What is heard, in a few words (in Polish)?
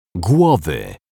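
"głowy", Polish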